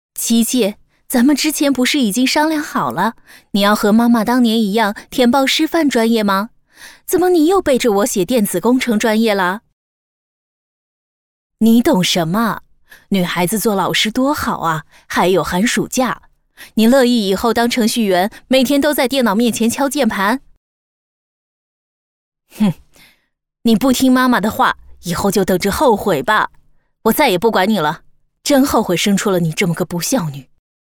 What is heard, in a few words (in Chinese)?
女; MG人物; 琪哥母亲